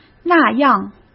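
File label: "那样", Mandarin Chinese; hsk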